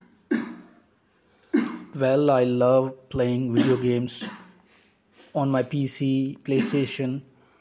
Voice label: noisy reference